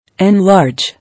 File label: "Enlarge", English